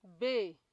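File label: "bae", Nigerian Pidgin, female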